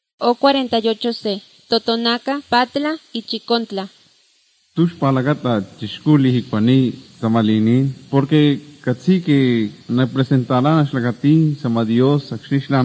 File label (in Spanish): spanish mexico